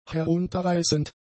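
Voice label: "herunterreißend", German; adjectives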